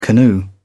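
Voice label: "canoe", British English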